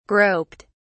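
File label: "groped", British English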